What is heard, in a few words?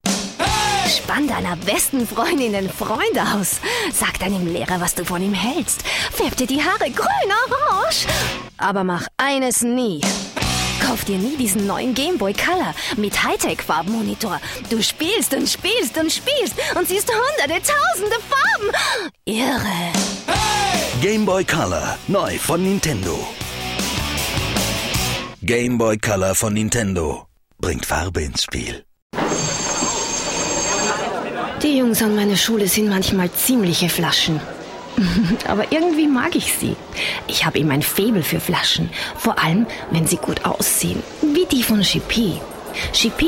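Austrian, Variabel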